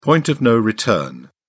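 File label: "point of no return", British English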